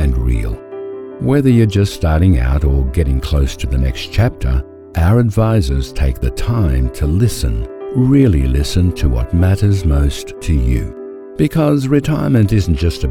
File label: australian male voice over artist